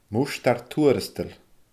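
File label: "murtair tuarastail", Scottish Gaelic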